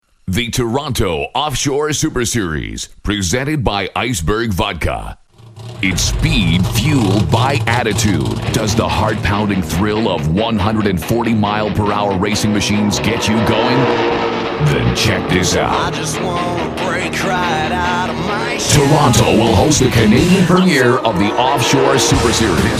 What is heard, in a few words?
TV Promos